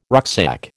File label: "rucksack", American